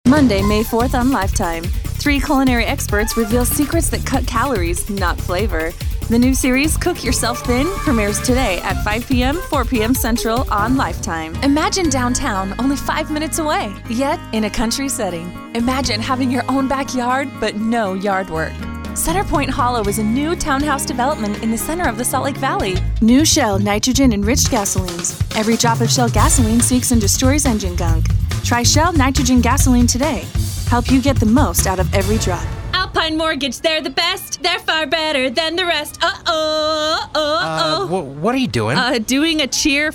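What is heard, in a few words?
commercial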